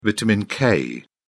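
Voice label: "vitamin k", English, British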